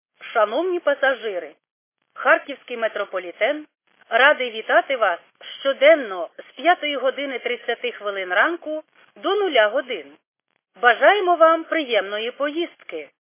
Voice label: khs st female, subway station